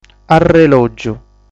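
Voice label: "Arrelògiu", Sardinian word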